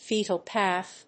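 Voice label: "fetal calf", English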